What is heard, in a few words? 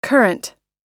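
"currant", American English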